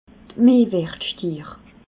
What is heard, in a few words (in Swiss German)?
"mehrwertstiir", alsace